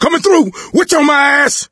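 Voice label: witch chasing, voice, male; Left 4 Dead 2; coach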